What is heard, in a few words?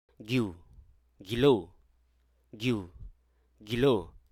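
Hruso Aka; carry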